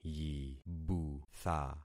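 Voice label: "yibutha", concatenated syllables; Affixing experiment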